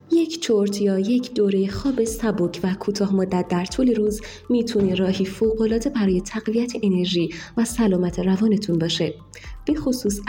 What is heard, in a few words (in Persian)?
پادکست فواید و مضرات چرت زدن